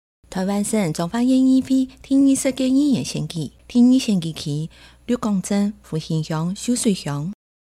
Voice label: 前言 客語; 彰化縣; 有聲公報; 縣議員; 第二選區

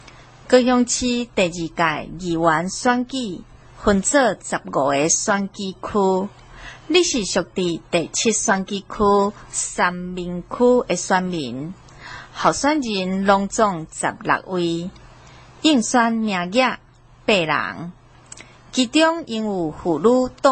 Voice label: 台語, 前言, 有聲選舉公報; 第七選區

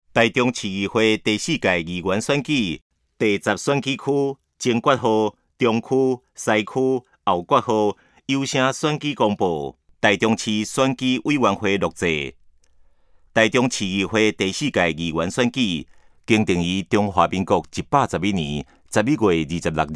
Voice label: 前言, 台語; 臺中市; 有聲公報; 市議員; 第10選區

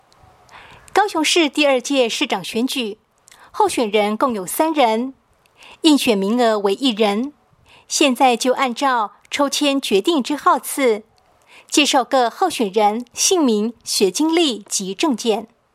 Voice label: 國語 市長 前言; 高雄市; 高雄市市長議員有聲選舉公報

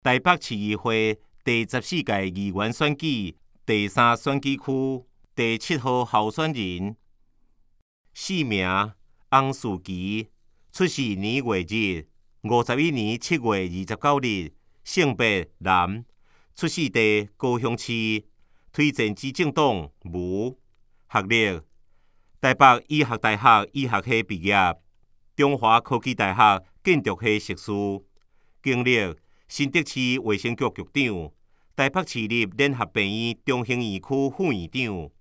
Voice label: 台語; 臺北市議會第14屆議員第3選舉區 (松山、信義區)候選人介紹; 洪士奇; 有聲公報

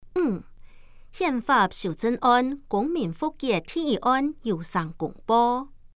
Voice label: 客語; 臺北市; 有聲公報; 市議員; 結語 (含反賄選宣導)

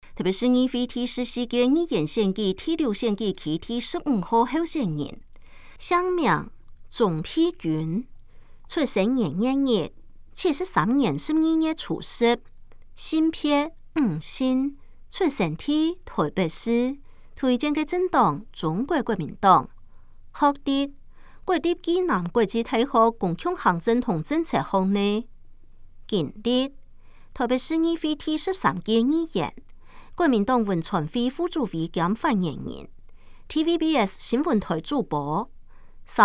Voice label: 客語; 鍾沛君; 臺北市議會第14屆議員第6選舉區 (大安、文山區)候選人介紹; 有聲公報

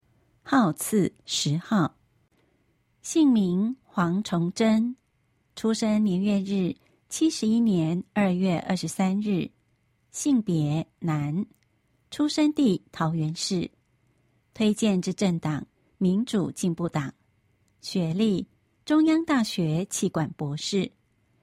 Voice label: 國語; 有聲公報; 市議員

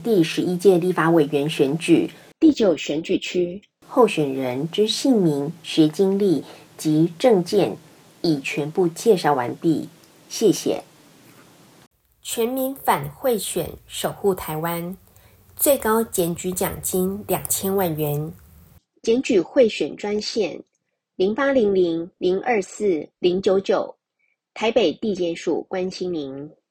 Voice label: 國語, 有聲選舉公報, 結語; 第11屆區域立法委員選舉; 新北市; 第09選舉區（永和區、中和區泰安里等17里）

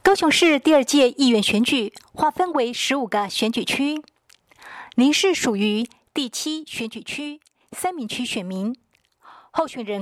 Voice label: 國語; 高雄市; 高雄市市長議員有聲選舉公報; 第七選區

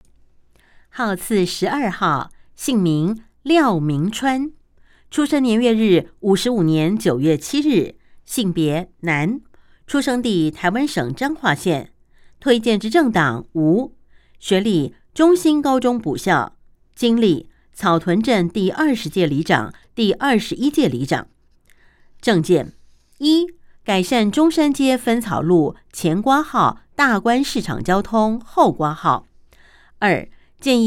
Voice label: 國語; 南投縣; 縣議員; 有聲公報

